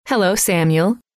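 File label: hello, female; trainer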